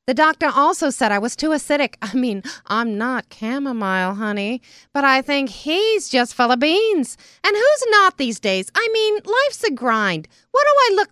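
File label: character, female voice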